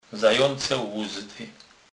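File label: "zajace luzdy", Kurpie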